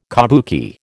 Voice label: "kabuki", American

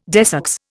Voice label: "desex", Indian

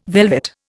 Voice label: "velvet", Indian

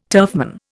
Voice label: "turfman", Indian

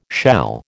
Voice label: "shall", American

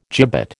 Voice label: "gibbet", American

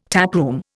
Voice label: "taproom", Indian

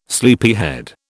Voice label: "sleepyhead", British

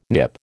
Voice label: "Nip", American

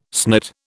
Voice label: "snit", British

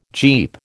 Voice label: "Jeep", American

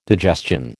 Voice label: "digestion", American

